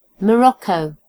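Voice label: "Morocco", British English; geography; gcompris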